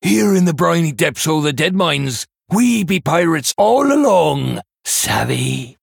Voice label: Male Human PIRATE DAY